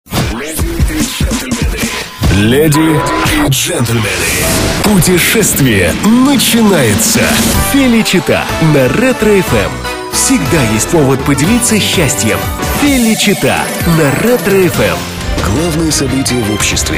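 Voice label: Russian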